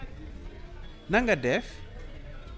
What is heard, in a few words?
Wolof how are you doing